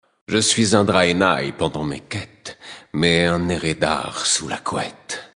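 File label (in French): Male Flirt, voix françaises; Draenei Sancteforge; Couette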